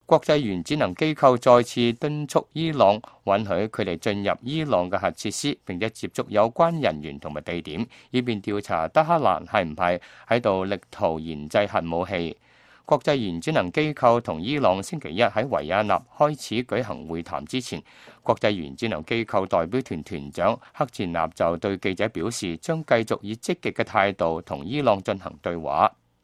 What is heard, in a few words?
cantonese, news; 國際原子能機構向伊朗施壓壓力